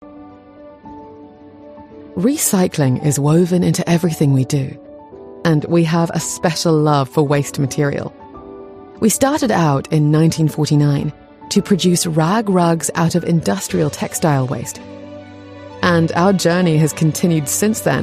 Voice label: english british audio guides